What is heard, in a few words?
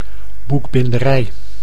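"boekbinderij", Dutch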